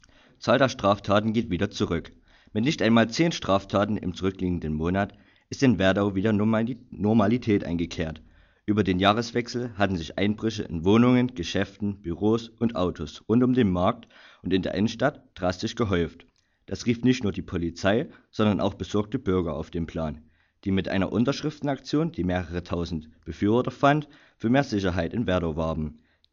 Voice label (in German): Meldung